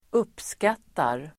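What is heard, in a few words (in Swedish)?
"uppskattar", Swedish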